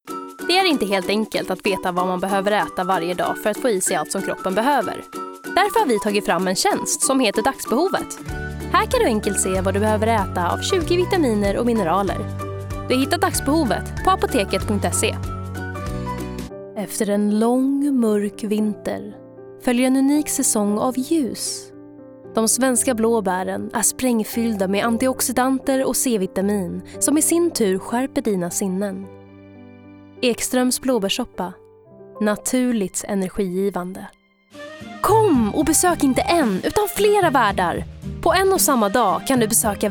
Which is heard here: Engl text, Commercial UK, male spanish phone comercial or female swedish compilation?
female swedish compilation